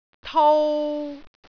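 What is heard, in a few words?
"tou3", Cantonese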